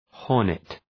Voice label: "hornet", Προφορά; {‘hɔ:rnıt}